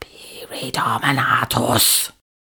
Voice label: pyri dominatus whisper, older female; fire spells; psfx